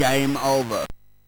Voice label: Bouncy Balls speech, MOS 6581